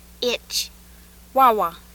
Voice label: Swahili w